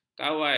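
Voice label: "kawai", Malay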